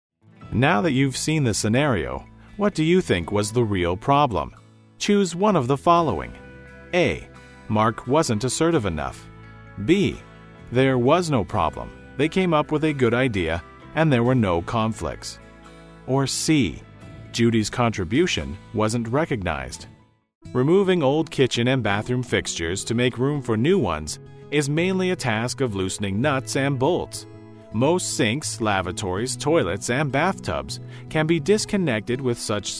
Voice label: elearning